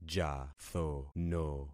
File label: "jathono", concat syllables; Affixing experiment